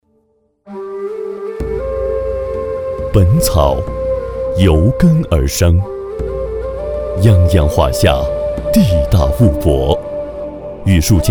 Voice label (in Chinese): 男, 大气磁性古典宣传; 本草汉方